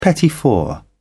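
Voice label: "petit four", English, British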